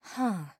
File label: huh soft